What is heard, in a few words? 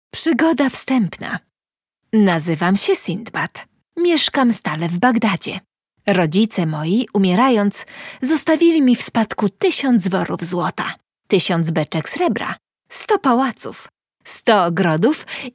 polish narration